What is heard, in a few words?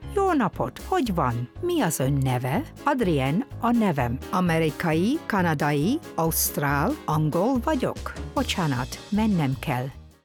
hungarian phrases